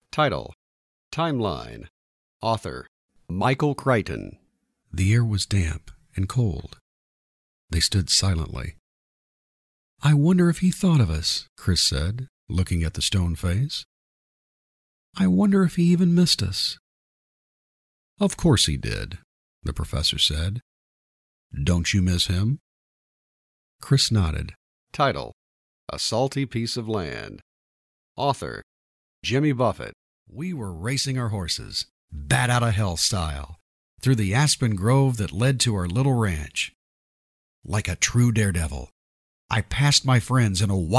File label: Audio Book